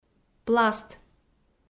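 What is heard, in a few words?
"пласт", Komi, female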